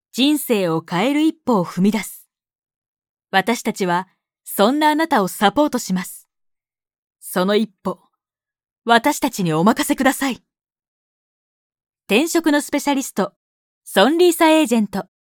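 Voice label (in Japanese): ストレート, ナレーション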